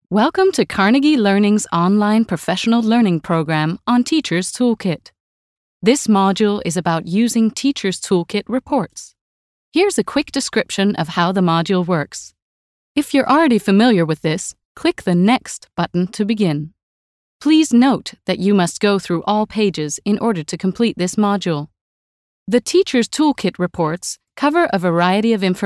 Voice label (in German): Nachvertonung Film Sprecherin Englisch US